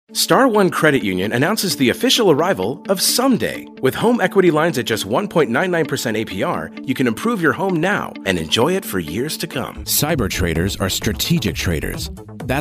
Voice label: Commercial